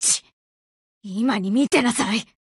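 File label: BREAK Japanese, Voice; GF Alarm; Colt Defender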